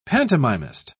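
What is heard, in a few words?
"pantomimist", British English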